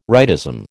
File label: "rightism", American